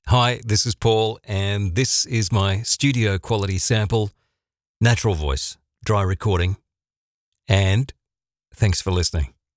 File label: studio sample